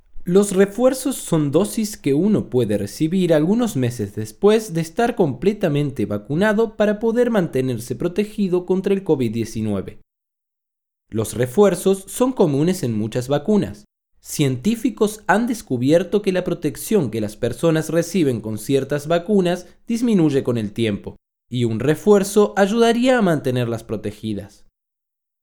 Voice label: Boosters PSA SPANISH